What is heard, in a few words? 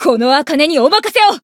chant, jp